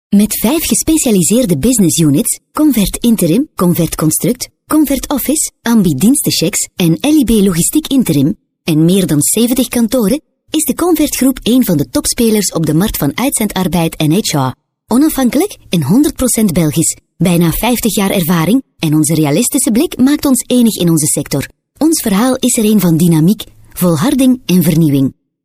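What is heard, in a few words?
flemish female narration; dutch; voice demos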